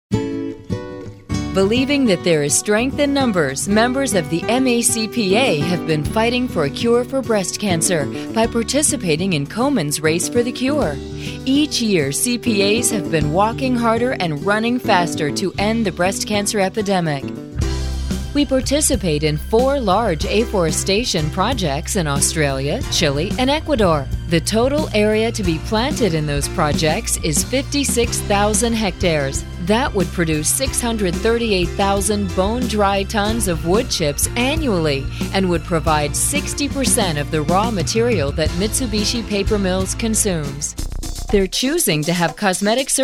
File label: Narration